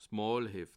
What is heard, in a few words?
"molheft", alsace